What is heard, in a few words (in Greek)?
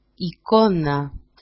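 "ikona", Greek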